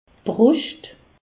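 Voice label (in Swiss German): "bruscht", Haut Rhin; medecine; poitrine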